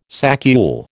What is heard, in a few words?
"saccule", American